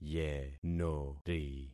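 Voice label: "yenori", concatenated syllables; Affixing experiment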